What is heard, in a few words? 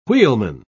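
"wheelman", British English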